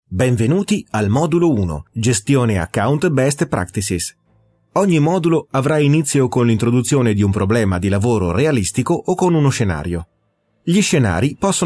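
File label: e-learning, Italian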